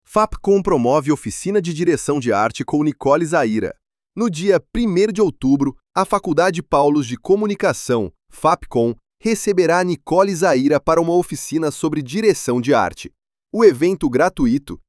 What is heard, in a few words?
voiceover; voiceover generator; freepik